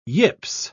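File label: "yips", British English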